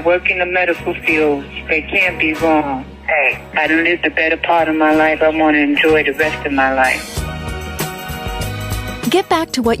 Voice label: Vaccine Confidence Project TV Spots